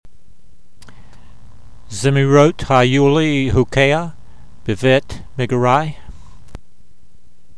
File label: voice, hebrew language; Psalm 119:54; zemeerot hai'yoo-lee hukeyha be'vayt me'goo'rai